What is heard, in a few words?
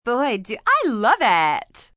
I Love It Valley, Sexy, fun, female, Valley girl accent; Talking Sound Effects